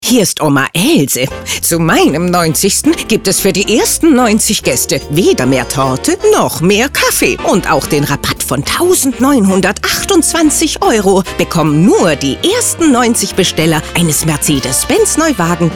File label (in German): spotrolle; oma else